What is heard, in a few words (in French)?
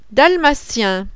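"dalmatien", French; CHIEN; mammifère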